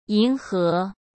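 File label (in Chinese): "迎合", Mandarin Chinese; hsk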